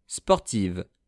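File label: "sportive", French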